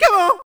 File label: break "c'mon" loud; HeavenStudioPlus; djSchool